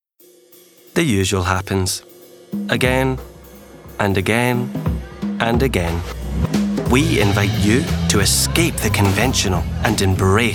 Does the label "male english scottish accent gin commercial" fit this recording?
Yes